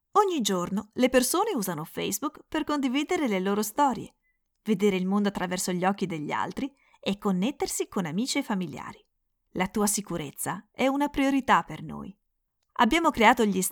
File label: edu